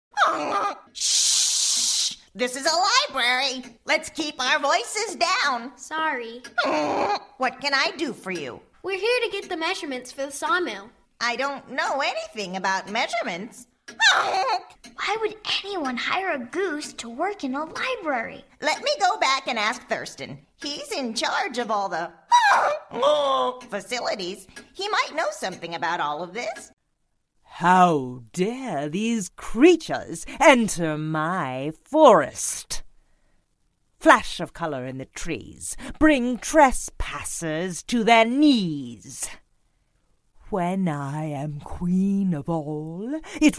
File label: Animation